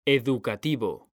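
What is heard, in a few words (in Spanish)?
"educativo", Spanish, male; mekanta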